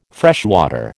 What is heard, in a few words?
"freshwater", American